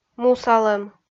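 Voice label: "мусалӧм", Komi, female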